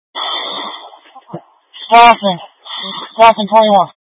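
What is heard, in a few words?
Incident Tactical, scanner audio recording; Incident Tac 1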